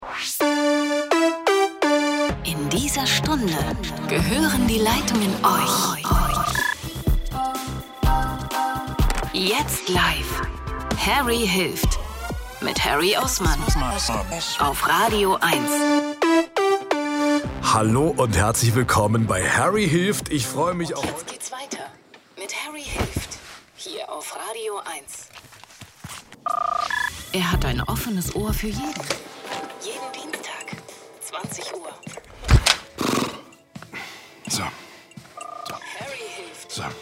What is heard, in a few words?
Station Voice; Harry hilft